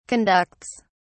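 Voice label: "conducts", British English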